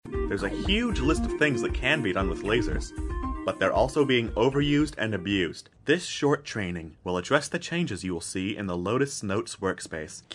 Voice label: narration; 60-Second Narration Demo